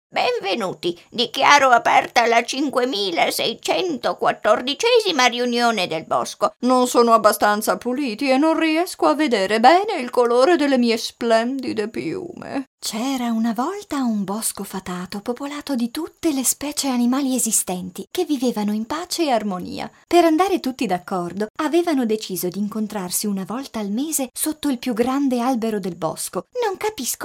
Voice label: female italian voice over character